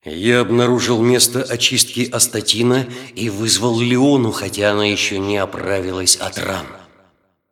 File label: cinematic voice, ru; lineage2